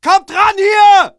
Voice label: ger backup, german